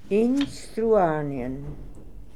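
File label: "Instruarnian", pronunciations; Fourth Way; Beelzebub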